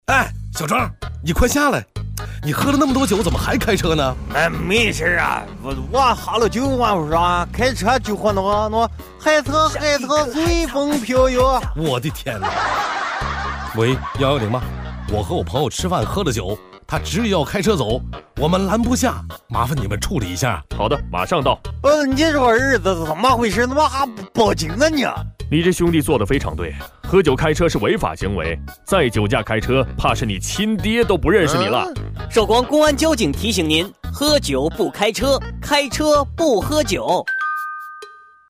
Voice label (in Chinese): 男, 动画; mg动画; 开车不喝酒